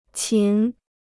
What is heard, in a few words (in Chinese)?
"琴", Chinese